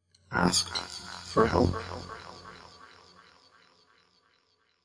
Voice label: processed male vox poetry bits